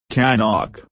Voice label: "canuck", Canada; girias